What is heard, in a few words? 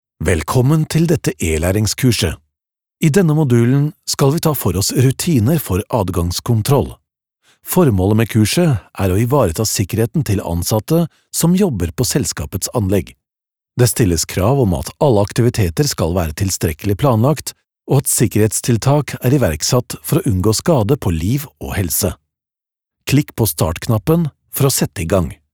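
no elearning, Norwegian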